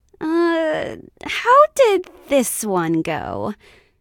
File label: Emote Thinking; Voice Pack